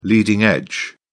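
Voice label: "leading edge", English, British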